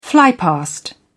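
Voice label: "flypast", British English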